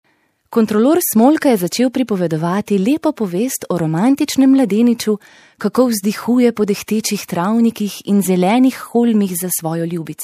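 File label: slovenian female narration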